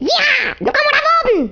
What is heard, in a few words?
"Drop", Danish